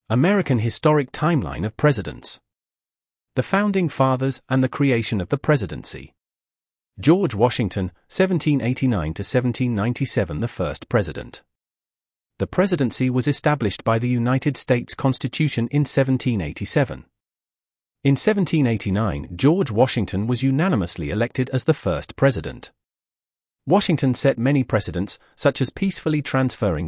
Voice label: voice over; american historic timeline of presidents